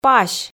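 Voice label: "пась", Komi, female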